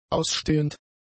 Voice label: "ausstehend", German; adjectives